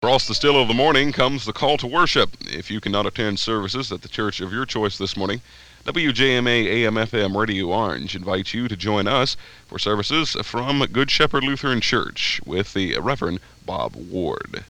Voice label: Church broadcast open; WJMA; Here's the beginning to the long running Sunday morning church broadcast